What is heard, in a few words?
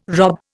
"rob", Indian